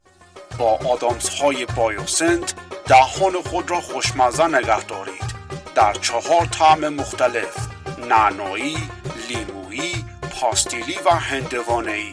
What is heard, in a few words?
Dari, Commercial